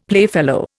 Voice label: "playfellow", Indian; Dictionary